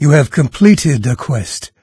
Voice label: quest complete, narrator; Fate The Cursed King; en-US

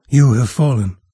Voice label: die, narrator; Fate The Cursed King; en-US

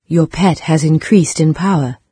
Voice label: petlevelup, narrator, en-UK; Fate

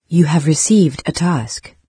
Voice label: new quest, en-UK, NARRATOR; Fate

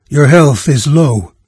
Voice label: health, VOICES en-US NARRATOR; Fate Undiscovered Realms

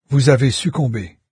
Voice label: die, fr, narrator; Fate The Traitor Soul

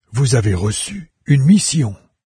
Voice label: new quest, fr, NARRATOR; Fate

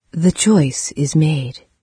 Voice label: choice, en-UK, NARRATOR; Fate

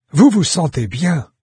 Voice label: healthy, fr, NARRATOR; Fate

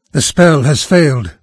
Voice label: spellfail, narrator; Fate The Cursed King; en-US

